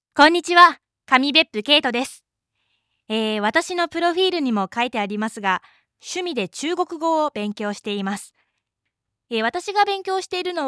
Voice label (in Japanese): フリートーク